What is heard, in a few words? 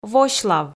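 "восьлав", Komi, female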